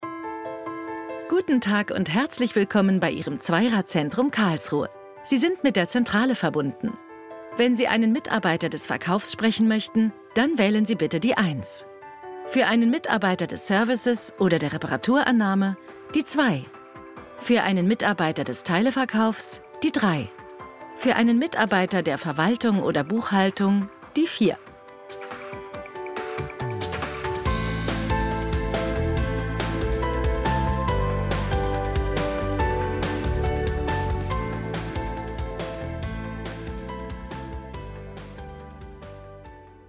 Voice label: Telefonansage

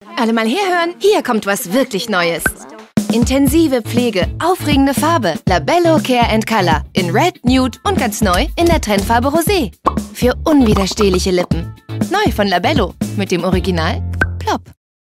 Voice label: TV Spot, Commercial (Werbung), German; Labello Care & Color

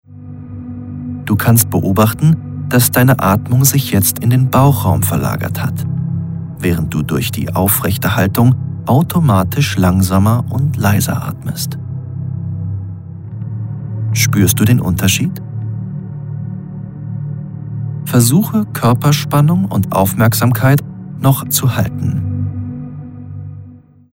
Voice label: Lern App de Atmung fokussiert wohltuend Mentor; LOFT VOICES

